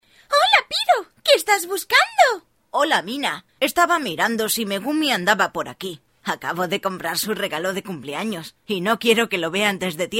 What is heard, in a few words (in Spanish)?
locutora espanola